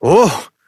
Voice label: Horse Stop Walk Adult; Duviri

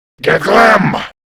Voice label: Attack Grineer Male; Warframe; Battle Barks

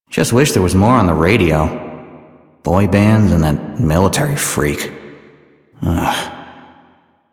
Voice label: Citizen Male, en, Mall; Warframe; Citizens

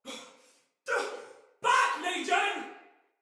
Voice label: Attack Heavy Soldier Female; Battle; Barks; Dialog; Sinecure Soldier VIP Female A

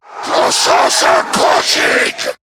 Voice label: Cover Me, en; Zariman Wraith

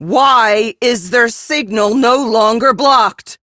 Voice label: Captain Female, drones destroyed, en; Warframe; Railjack; Crp Captain

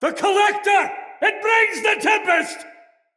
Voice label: fearful cry, soldier male; Dendrit

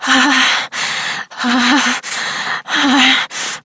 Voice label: Operator Voice

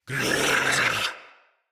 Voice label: Attack Knight Male, Battle; Barks; Dialog; Mendicant

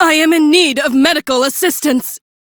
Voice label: Need Revive, Female; en; Warframe; Railjack Crew; Cephalon Suda

